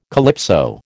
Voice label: "calypso", American

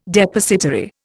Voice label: "Depositary", Indian

